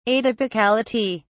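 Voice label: "atypicality", British English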